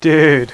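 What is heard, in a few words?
DUDE, male; Spanish Net; SCHOOL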